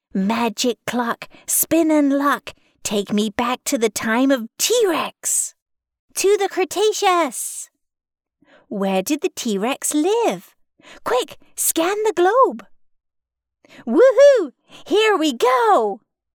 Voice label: 美式女英文游戏

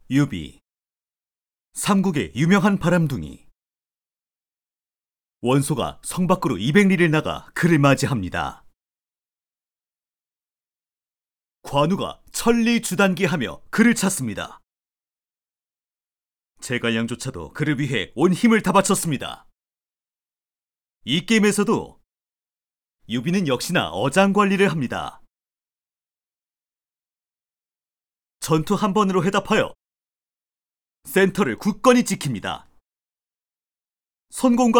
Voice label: 韩语男宣传片

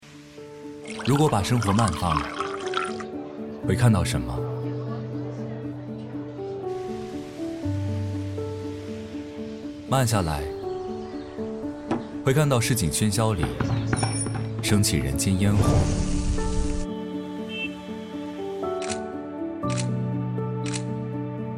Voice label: 温和讲述; 小米

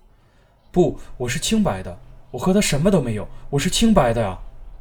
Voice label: 辩解, 男配音, 普通话, 声线大气浑厚

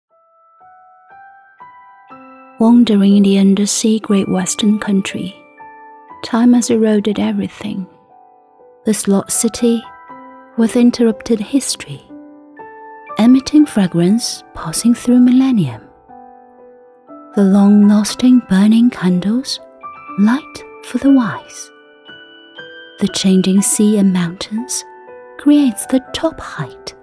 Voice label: 英走心旁白

